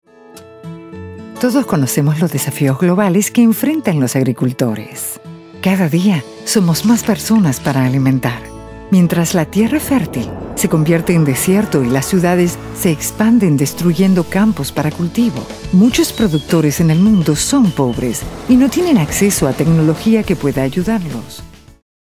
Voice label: spanish latin american documentaries